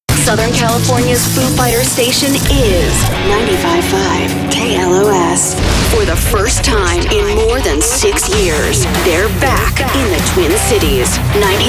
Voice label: rock radio imaging